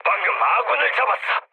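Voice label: Korean player killed ally mood low; War Thunder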